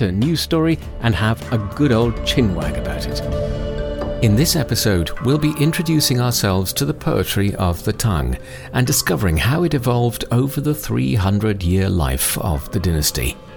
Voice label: english voiceover radio spots